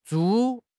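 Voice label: "株", Mandarin Chinese; HSK6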